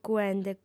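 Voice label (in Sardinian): "cuende", female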